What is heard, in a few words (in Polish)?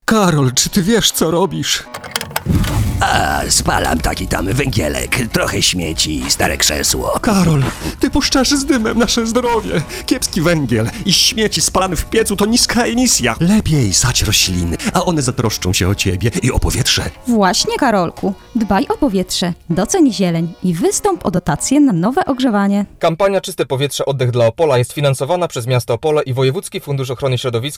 radio spot; radio opole